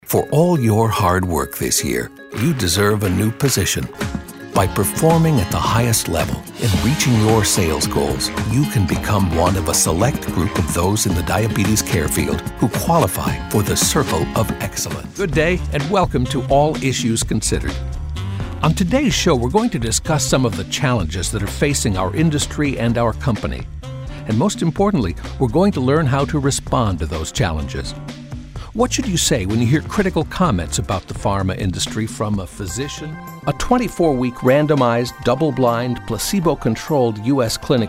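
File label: narration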